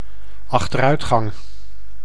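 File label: "achteruitgang", Dutch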